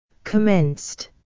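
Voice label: "commenced", English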